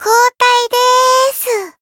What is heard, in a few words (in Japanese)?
Voice variable chant jp; soira